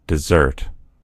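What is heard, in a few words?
"dessert", American English; gcompris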